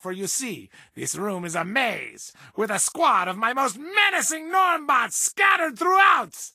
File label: English Voice